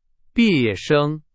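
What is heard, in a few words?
Chinese, vocabulary; hsk4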